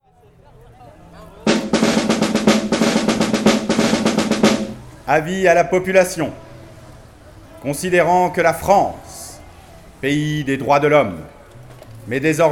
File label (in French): homme